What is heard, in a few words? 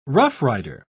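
"roughrider", British English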